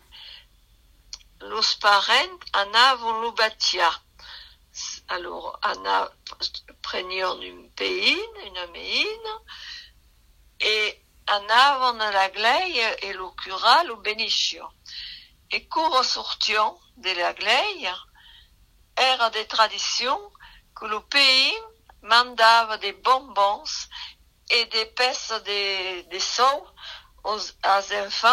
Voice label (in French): histoire de bapteme en patois